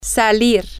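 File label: to leave go out, Spanish, female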